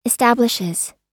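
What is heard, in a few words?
"establishes", American English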